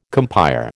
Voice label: "compÊre", American